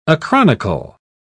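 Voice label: "acronychal", British English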